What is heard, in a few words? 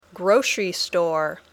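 "grocery store", English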